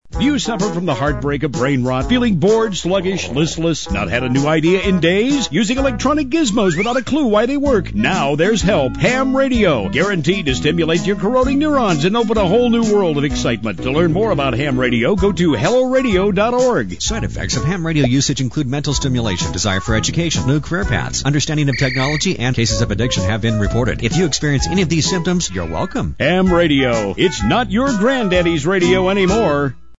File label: Ham Radio PSA; artbell